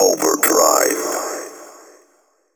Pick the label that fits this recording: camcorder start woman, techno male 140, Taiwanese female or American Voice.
techno male 140